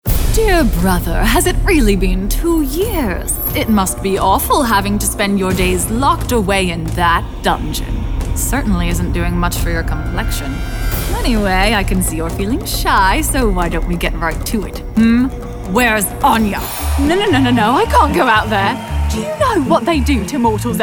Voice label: Character